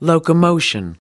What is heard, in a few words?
"locomotion", us